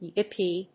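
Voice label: "yippee", English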